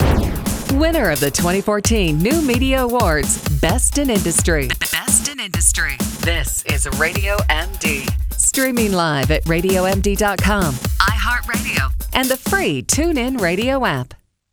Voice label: Award Promo; RadioMD Production